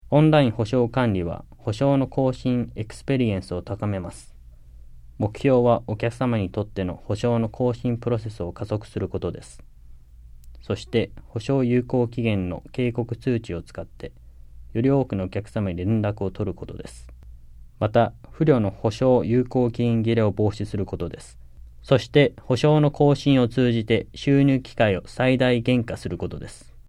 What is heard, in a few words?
JAPANESE; delltranslations